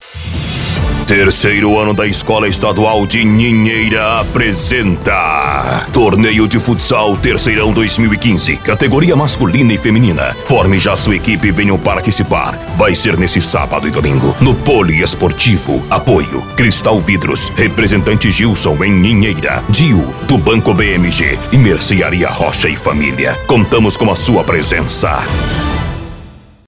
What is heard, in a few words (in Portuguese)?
VOZ IMPACTO GRAVE vinheta para torneio de futsal vinheta spot chamada comercial propaganda; anuncio